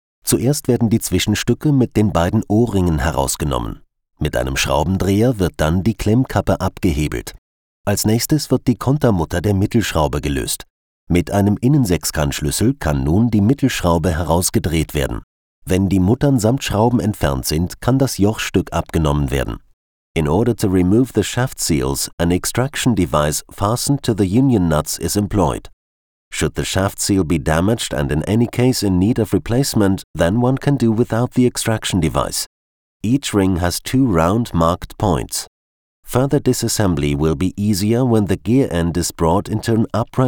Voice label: e-learning dt eng; Muttersprache Deutsch; Sprecher in Berlin

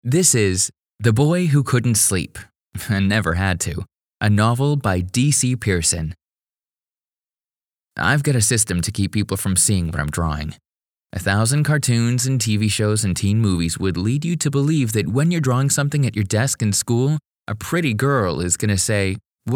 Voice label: audiobook; boy who couldnt sleep; Sprecher in Stuttgart; Muttersprache Englisch, Englisch/US-Amerikanisch

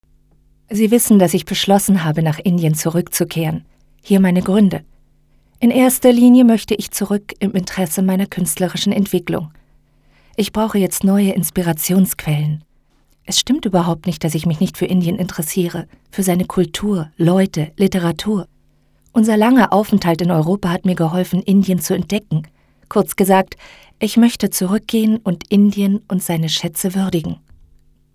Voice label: audioguide; amrita sher gil; kunsthaus muenchen

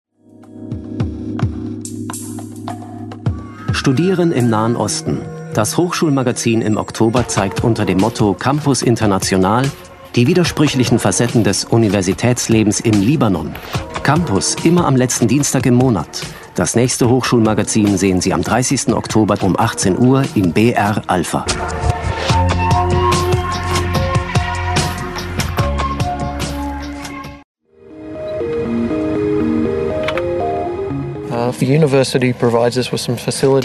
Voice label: station id 2x voiceover; br alpha

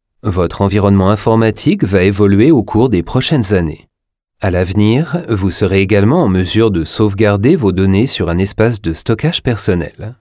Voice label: elearning

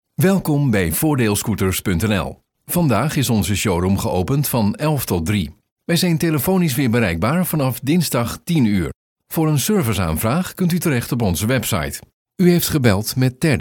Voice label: phone menu

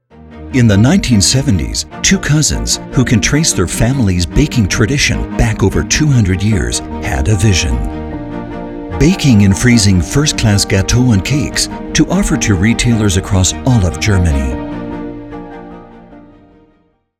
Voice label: company film eng; coppenrath wiese

